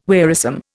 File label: "wearisome", Indian